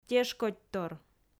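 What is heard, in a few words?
"тешкодьтор", Komi, female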